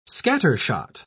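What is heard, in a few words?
"scattershot", British English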